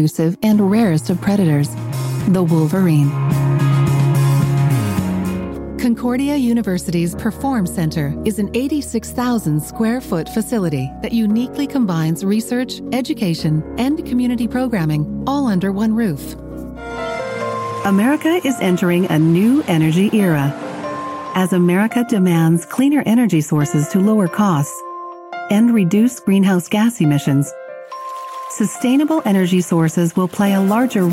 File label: english canadian corporate videos